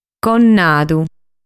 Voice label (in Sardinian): "Connadu", sardu